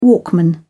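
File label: "walkman", British English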